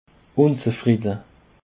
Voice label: "unzufriede", Alsace

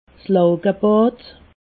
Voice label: "laugebad", alsace

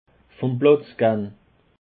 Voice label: "platzgehn", Alsace

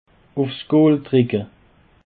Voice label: "gooldrucke", Alsace

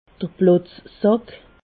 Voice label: "plotzsack", alsace

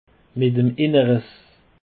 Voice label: "inneriess", Alsace

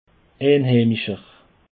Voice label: "einheimische", Alsace

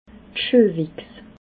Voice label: "schuehwichs", alsace

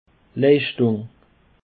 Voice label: "leischdung", Alsace

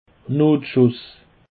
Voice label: "notschuss", Alsace

